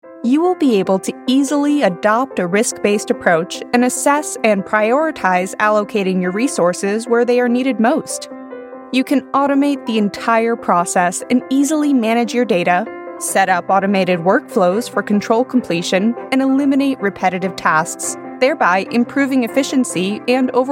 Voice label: corporate

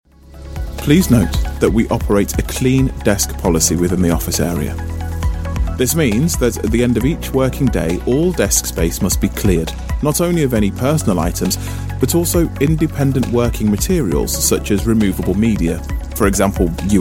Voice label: elearning

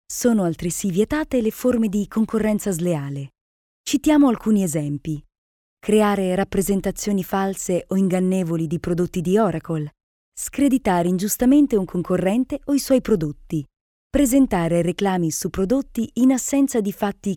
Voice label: italian female corporate

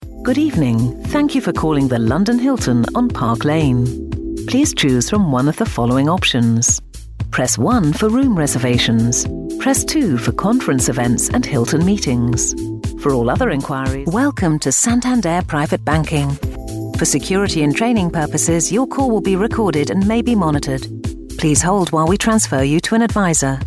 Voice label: ivr

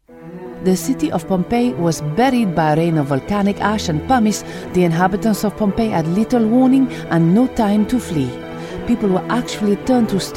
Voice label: italian accent

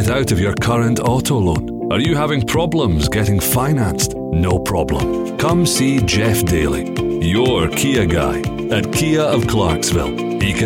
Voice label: continuity radio

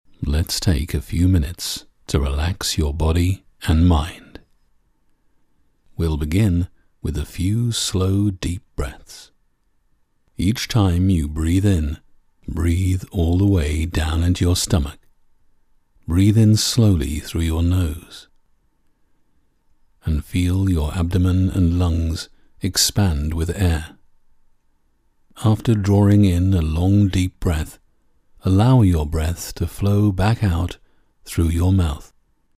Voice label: relaxation read

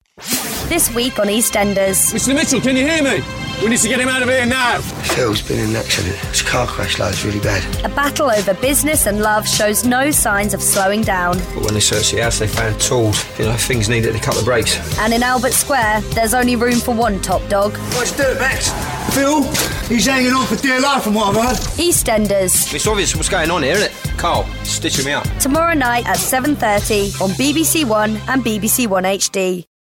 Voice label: trailer cool drama; eastenders

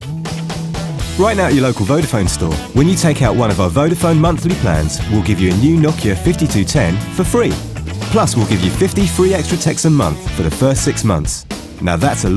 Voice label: commercials

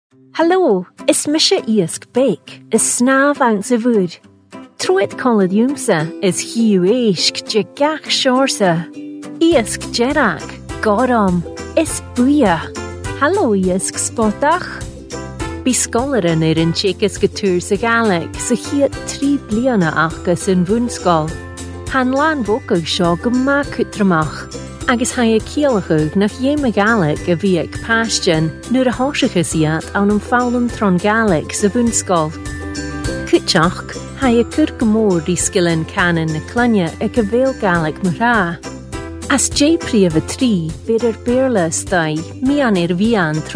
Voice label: scottish gaelic female gaelic

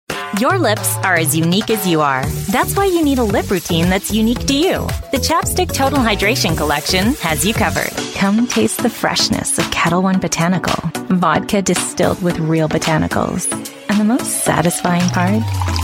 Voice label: american voice commercial

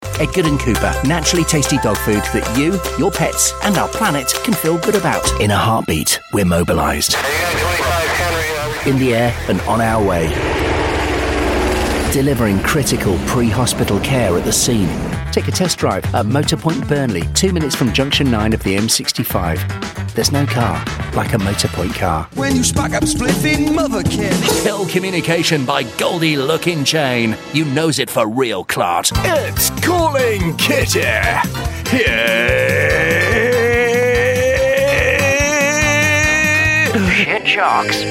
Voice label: commercial

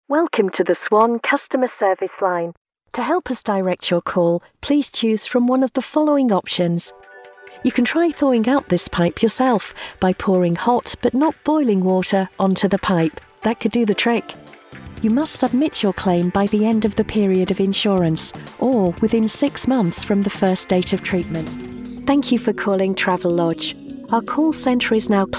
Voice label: on-hold

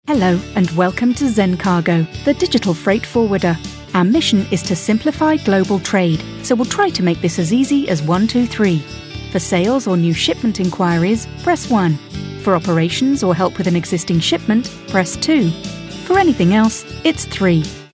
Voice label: telephone neutral accent

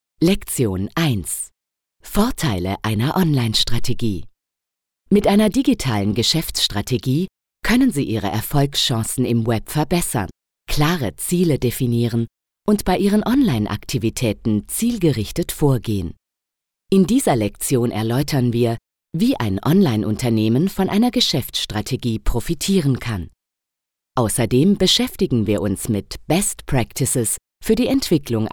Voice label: corporate

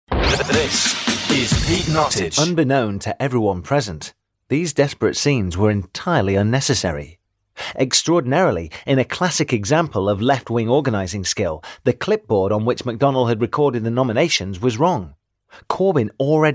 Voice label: audiobook

